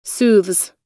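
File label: "soothes", American English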